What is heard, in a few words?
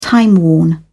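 "timeworn", British English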